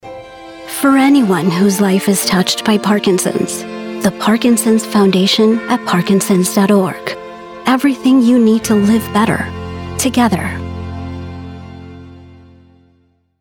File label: Commercial voiceover, Warm Emotional Authentic; Parkinsons Foundation